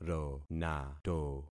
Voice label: "ronato", concat syllables; Affixing experiment